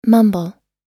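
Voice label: "mumble", American English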